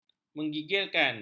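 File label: "menggigilkan", Malay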